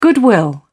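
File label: "goodwill", British English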